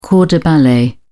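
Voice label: "corps de ballet", British English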